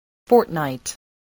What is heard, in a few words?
"fortnight", English voice, spelling